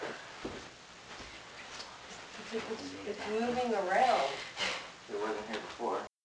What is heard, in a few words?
Whisper